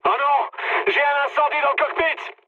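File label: French on fire mood high; War Thunder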